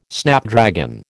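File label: "Snapdragon", American English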